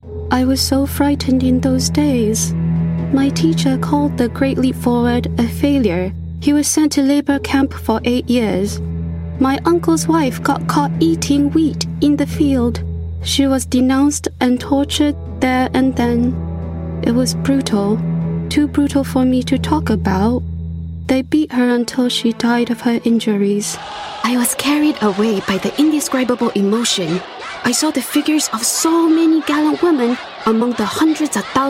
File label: Chinese Accent Dubbing